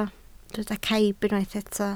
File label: "caib", Welsh